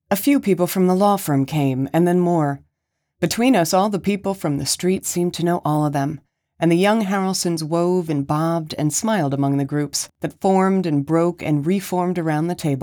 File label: Suspense Mystery (1st POV, Male Female Dialogue)